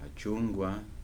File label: "orange", Kibembe